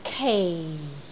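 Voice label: "kei4", Cantonese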